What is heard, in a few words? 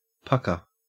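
"pukka", Australian English; pronunciations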